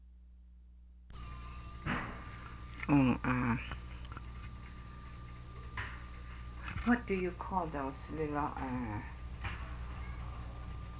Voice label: Cheyenne stories